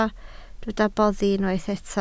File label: "boddi", Welsh